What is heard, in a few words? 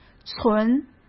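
"存" Mandarin Chinese; chinese-flashcards; hsk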